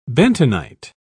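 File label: "bentonite", English, British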